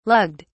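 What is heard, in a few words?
"lugged", British English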